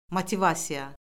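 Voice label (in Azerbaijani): "motivasiya", Azerbaijani; Orfoqrafiya Lüğəti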